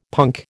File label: "punk", American